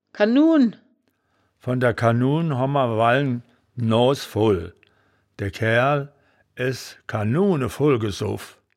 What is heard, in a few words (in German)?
"kannun", wittlich